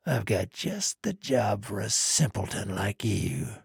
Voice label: trader male dislike quest offer

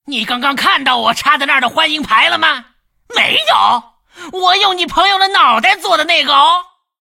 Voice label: attack, zh

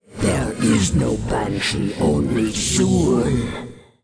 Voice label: pissed, en; Banshee; 女妖（魔兽争霸3）

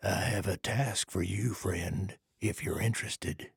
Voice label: trader male like quest offer

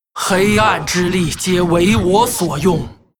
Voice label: hero female demon hunter morphed pissed

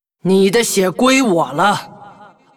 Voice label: hero female demon hunter yes attack

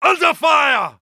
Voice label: uk Neutral

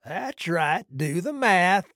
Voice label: trader male neutral sale accepted

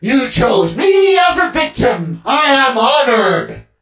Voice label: robo twin hurt

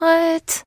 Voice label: trader monolog; 商人杰恩（七日杀）

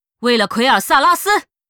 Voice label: war cry, zh

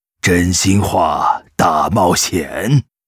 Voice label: Shadow hunter pissed, zh

